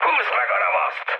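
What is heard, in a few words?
attack position, mood high, Hungarian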